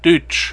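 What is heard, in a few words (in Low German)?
"duetsch", Plattdüütsch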